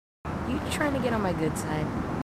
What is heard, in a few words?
marines female